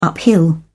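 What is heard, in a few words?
"uphill", British English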